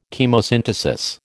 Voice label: "Chemosynthesis", American